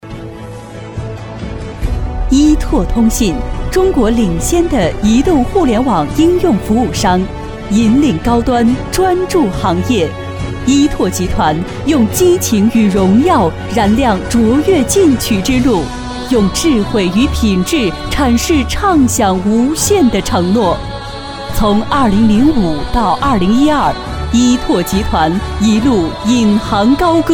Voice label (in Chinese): 女国 宣传片 企业 科技公司 大气